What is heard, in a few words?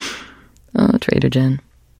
trader monolog; 商人杰恩（七日杀）